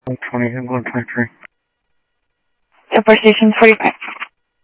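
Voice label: Sheriff East County, scanner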